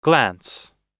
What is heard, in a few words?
"glance", oxford